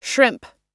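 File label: "sibling", us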